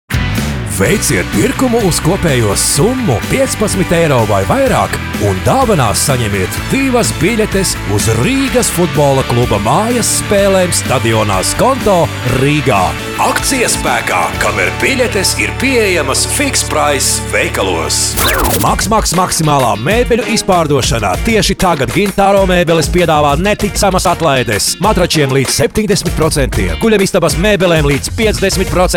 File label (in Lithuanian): latviu vyras